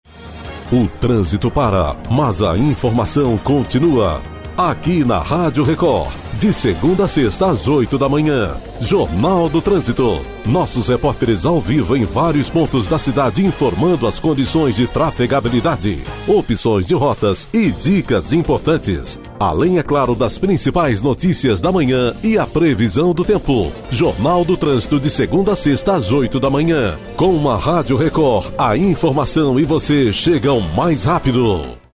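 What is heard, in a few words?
Brazilian Portuguese, male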